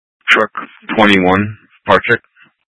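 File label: Incident Tac, Scanner audio recording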